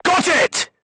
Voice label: voice message target destroyed, crew tanks vietnamese